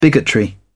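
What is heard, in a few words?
"bigotry", British English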